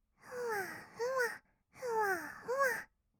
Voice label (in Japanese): ふわふわ, kawaii voice, 合いの手; 1200 kawaii voice!!; ASMR